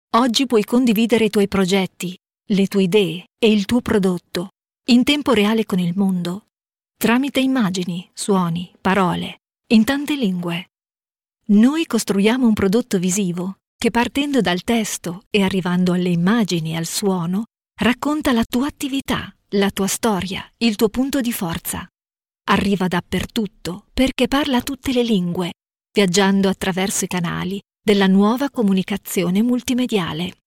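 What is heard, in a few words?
Italian, female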